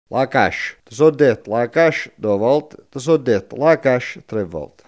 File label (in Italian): "cassa", coratino; CAPSA